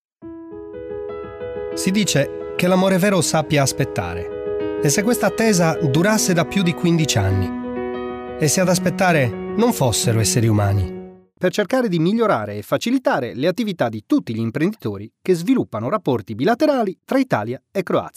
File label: Showreel, italian